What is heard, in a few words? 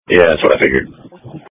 Police Tactical Encrypted